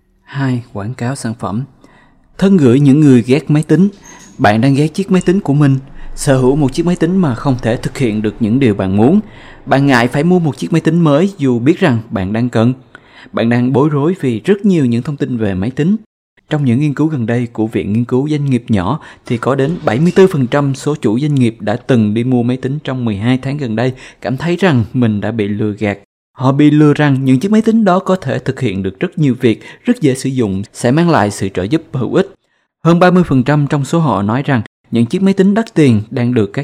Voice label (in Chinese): 越南语男, 宣传片